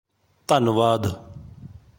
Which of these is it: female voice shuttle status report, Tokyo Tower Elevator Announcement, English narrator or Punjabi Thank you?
Punjabi Thank you